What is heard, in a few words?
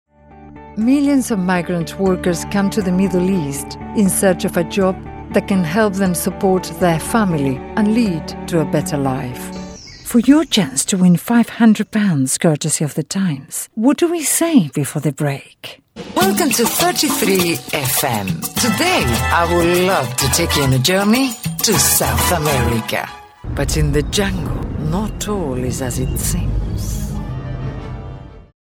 commercial english international